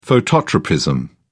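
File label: "phototropism", British English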